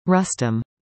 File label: "rustam", english; indonesian boy names